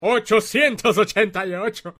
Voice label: italian humor; markiplier